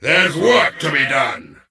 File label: Death Knight Male Med Farewell